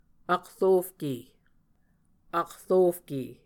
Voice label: "akrofke", Muscogee

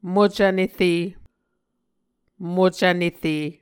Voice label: "mocv nere", Muscogee

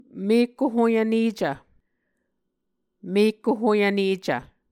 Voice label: "mekko hoyvnecv", Muscogee

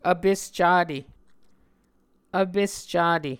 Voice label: "vpes cate", muscogee

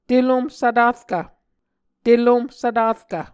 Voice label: "telomh svtarkv", Muscogee

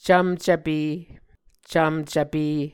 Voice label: "cvmcvpe", Muscogee

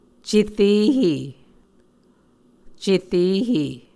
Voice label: "cerehe", Muscogee

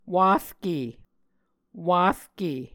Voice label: "warke", Muscogee

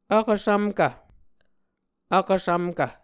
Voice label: "Vkvsvmkv", Muscogee

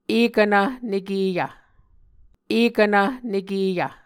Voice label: "ekvnv nekeyv", Muscogee

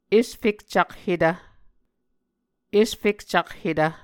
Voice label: "esfekcakhetv", Muscogee

